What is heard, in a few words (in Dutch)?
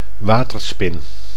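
"waterspin", Dutch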